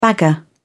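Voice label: "bagger", British English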